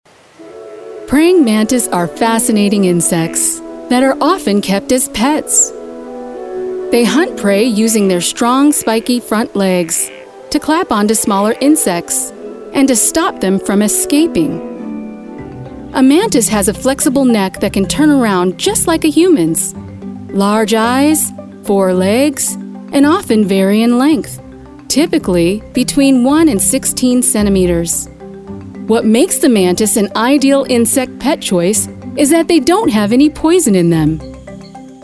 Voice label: Documentary & E-Learning

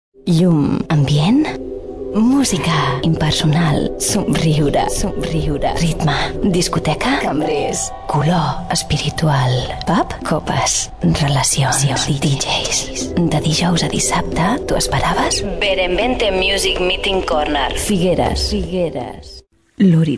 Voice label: radio

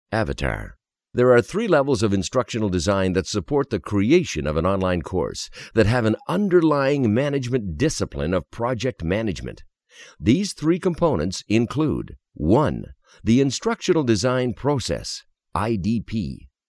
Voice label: e-learning

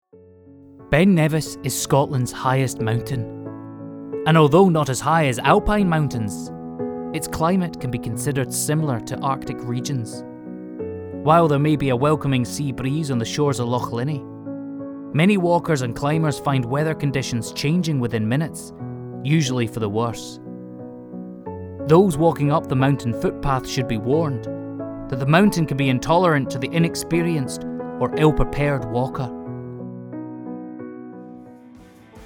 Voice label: Documentary

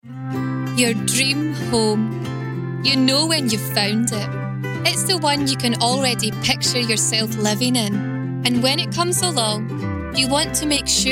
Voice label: Commercial; Bank of Scotland

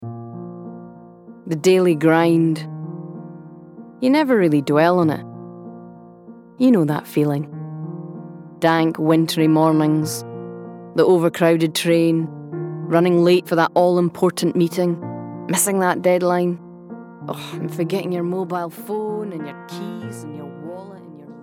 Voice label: Commercial; Radox

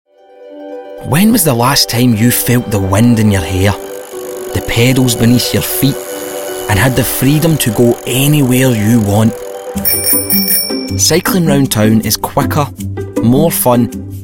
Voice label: Commercial; Cycling Scotland

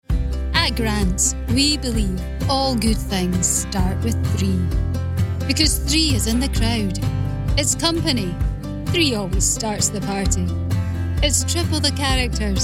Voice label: Commercial; Grants

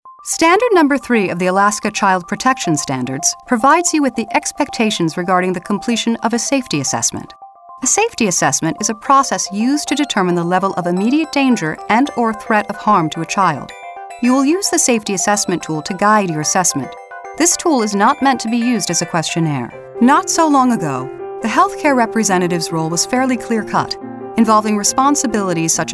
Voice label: E learning

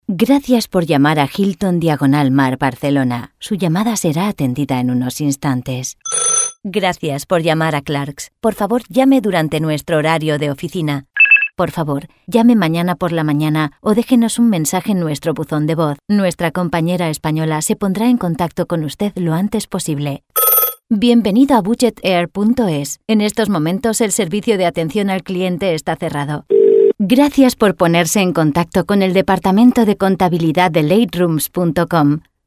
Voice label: ivr warm

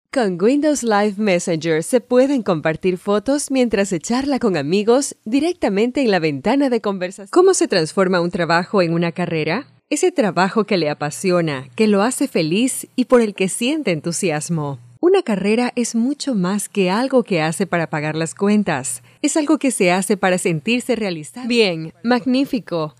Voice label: Educational mix

